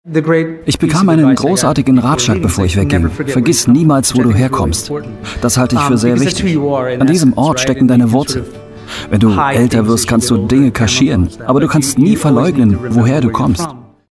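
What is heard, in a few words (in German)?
sprecherdemo overvoice frühstücksfernsehen; Leipzig; Muttersprache Deutsch; patrick dempsey; sat 1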